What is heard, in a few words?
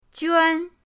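"juan", Mandarin pinyin, first tone; pinyindct-open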